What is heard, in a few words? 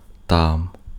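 Ukrainian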